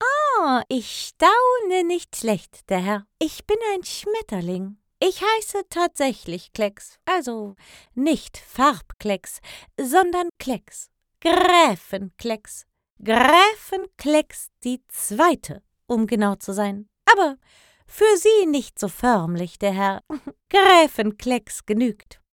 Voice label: Hoerspiel; Schmetterling

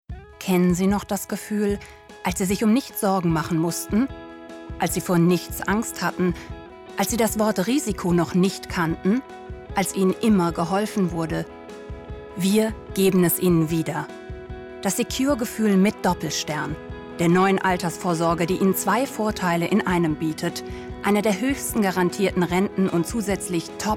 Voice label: Casting Werbung

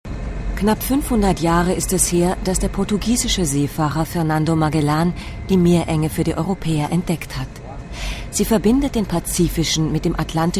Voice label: kommentar

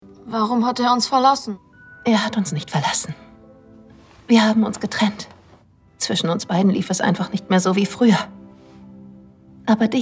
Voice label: Synchron Mutter, Lip-Sync (Synchron), German; SYNCHRON | Der Beste der Welt | Disney+

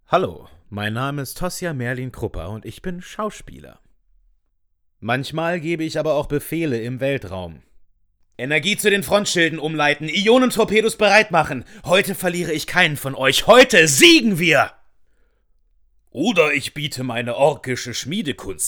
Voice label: Trickstimme

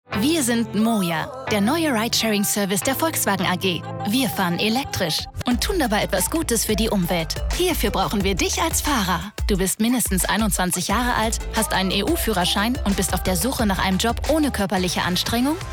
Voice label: Werbung Ridesharing

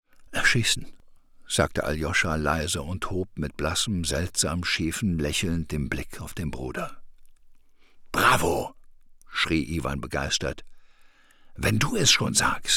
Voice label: Hoerbuch; LOFT VOICES

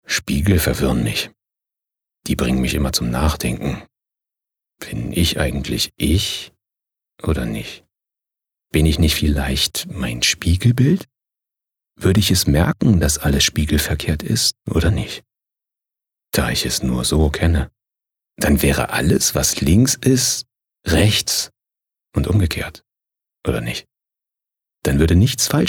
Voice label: Innerer Monolog, BER

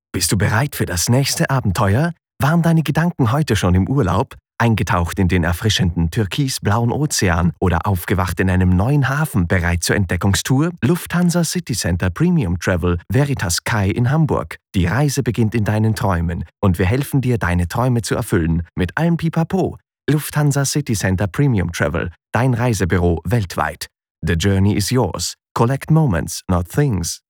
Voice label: Radiowerbung; Lufthansa

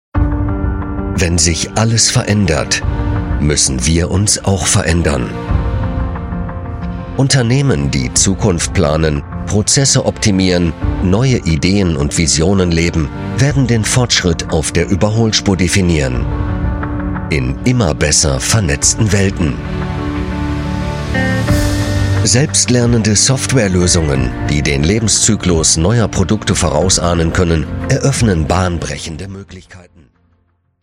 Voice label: Imagefilm Industrie professionell selbstbewusst klar

